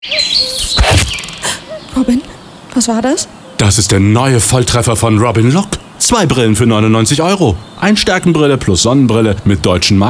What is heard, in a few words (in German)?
radio spot